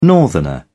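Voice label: "northerner", British English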